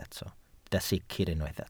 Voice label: "sicr", Welsh

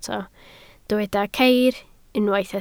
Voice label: "ceir", Welsh

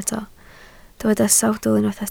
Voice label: "sawdl", Welsh

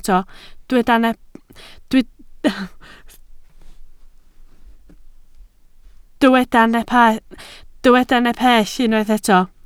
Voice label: "nepell", Welsh

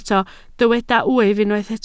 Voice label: "wyf", Welsh

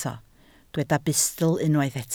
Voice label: "bustl", Welsh

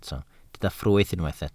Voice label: "ffrwyth", Welsh

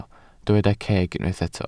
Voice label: "ceg", Welsh

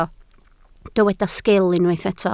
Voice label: "sgil", Welsh

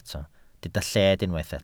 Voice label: "lled", welsh

